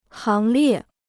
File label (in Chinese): "行列", Chinese